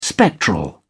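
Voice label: "spectral", English, British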